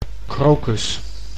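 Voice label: "krokus", Dutch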